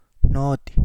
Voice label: Kapingamarangi; sling jaw wrasse; angalaa unga